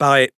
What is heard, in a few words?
Speech Pilots Torpedo Ready, FR; World Of Warships